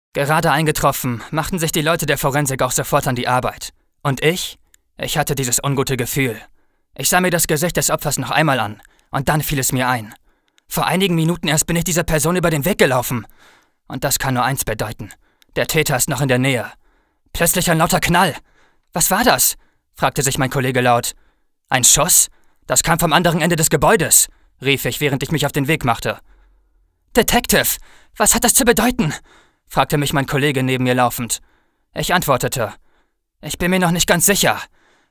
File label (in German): hoerbuch